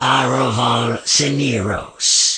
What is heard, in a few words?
alien taunt confirm